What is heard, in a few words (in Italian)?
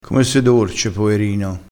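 "dorce", dialettale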